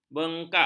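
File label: "bengkak", Malay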